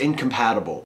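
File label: "incompatible", US English; pronunciations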